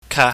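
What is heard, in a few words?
"ka", Cantonese, mid level tone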